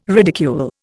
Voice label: "ridicule", Indian